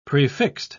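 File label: "prefixed", English, British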